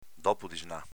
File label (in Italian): "dopodisna", Veneto; avv. pomeriggio; dopo pranzo